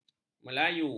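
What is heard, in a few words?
"melayu", Malay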